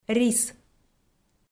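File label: "рис", Komi, female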